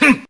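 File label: "huh", vocal sample; Voice; ooh ahh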